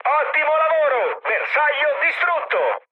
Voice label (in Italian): bomb success, mood high, speech it; War Thunder